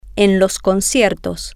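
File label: concerts, Spanish, female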